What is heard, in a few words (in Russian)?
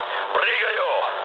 bailout, mood low, speech ru; War Thunder